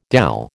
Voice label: "dhal", American